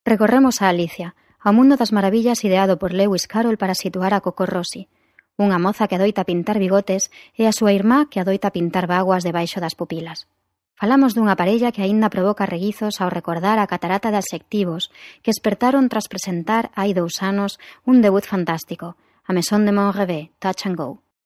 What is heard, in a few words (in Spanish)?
locutora gallega; galician voiceovers